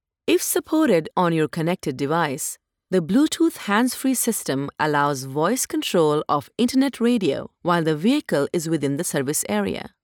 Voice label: Telematic, ivr